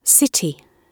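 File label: "city", British English; Oxford Audio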